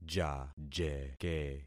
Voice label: "jajeke", concat syllables; Affixing experiment

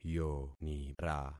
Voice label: "yonira", concat syllables; Affixing experiment

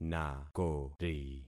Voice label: "nakori", concat syllables; Affixing experiment

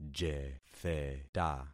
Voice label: "jetheta", concat syllables; Affixing experiment

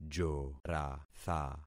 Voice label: "joratha", concat syllables; Affixing experiment

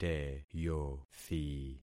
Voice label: "teyothi", concat syllables; Affixing experiment

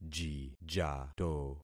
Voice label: "jijato", concat syllables; Affixing experiment

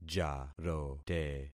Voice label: "jarote", concat syllables; Affixing experiment

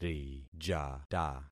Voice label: "rijata", concat syllables; Affixing experiment

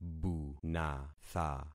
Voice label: "bunatha", concat syllables; Affixing experiment

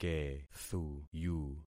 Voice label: "kethuyu", concat syllables; Affixing experiment

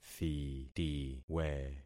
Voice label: "thitiwe", concat syllables; Affixing experiment

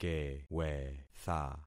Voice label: "kewetha", concat syllables; Affixing experiment

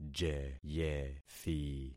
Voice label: "jeyethi", concat syllables; Affixing experiment

